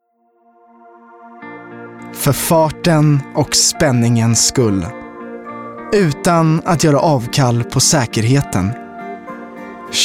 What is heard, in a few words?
Swedish Neutral Commercial Car